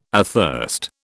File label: "athirst", British